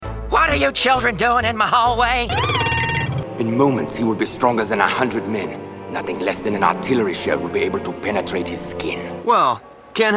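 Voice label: animation male, Voice Over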